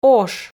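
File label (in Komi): "ош", Komi, female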